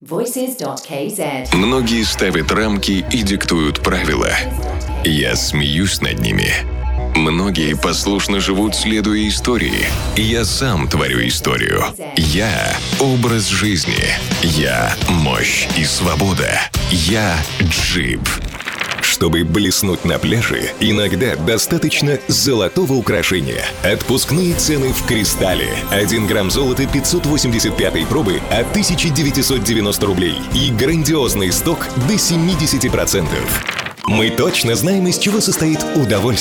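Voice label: russian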